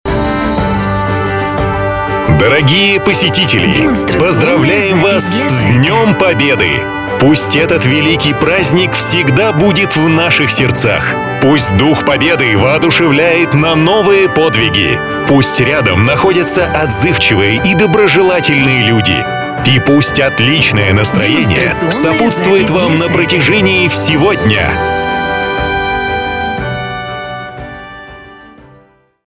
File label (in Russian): Поздравление с 9 мая универсальное мужской